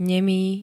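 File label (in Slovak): "nemý", Slovak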